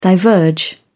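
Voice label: "diverge", British English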